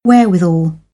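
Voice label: "wherewithal", British English; AmericanBritish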